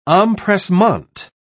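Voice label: "empressement", British English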